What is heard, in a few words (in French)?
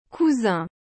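"cousin", French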